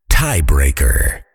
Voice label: "tie breaker", voiceover fighter; kenney

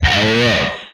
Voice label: "Power Up", Speech; retro arcade

